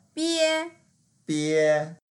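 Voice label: "bie1", Mandarin Chinese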